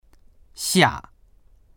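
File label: "xia4", Mandarin Chinese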